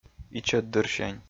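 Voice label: "ичӧтдырсянь", Komi, male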